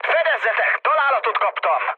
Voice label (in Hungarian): aircraft damaged mood med, hun; War Thunder